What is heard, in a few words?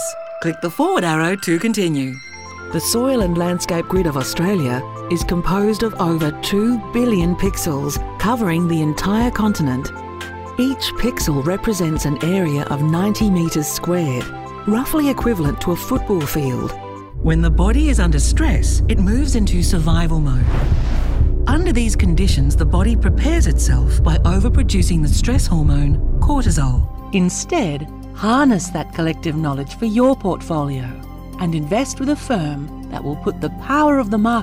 CORPORATE NARRATION